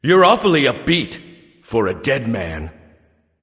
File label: taunt directed; smite; thanatos; mercury